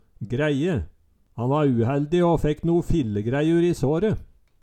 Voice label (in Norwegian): græie, Numedalsmål